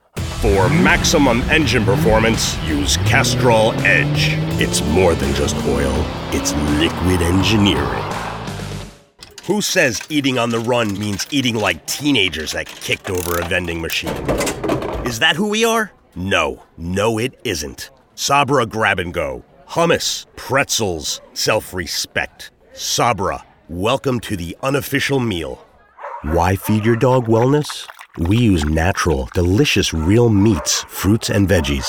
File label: COMMERCIAL